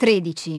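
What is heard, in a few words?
"thirteen", English; iOS 4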